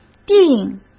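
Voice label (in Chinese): "定", Mandarin Chinese; chinese flashcards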